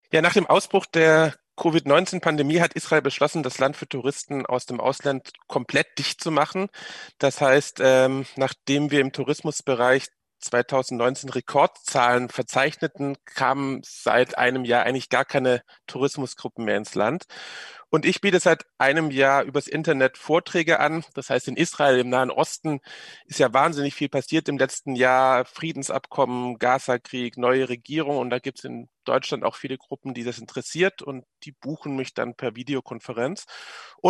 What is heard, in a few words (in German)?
radio